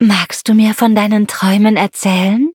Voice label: loading, de; Cookie Run